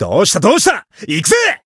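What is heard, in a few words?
Voice chant, Japanese; dennie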